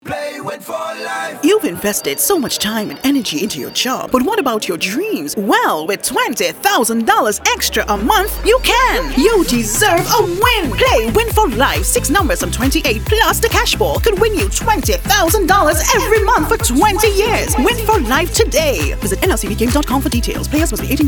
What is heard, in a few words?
RADIO AD; NLCB; WIN 4 LIFE